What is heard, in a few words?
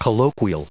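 "colloquial", US English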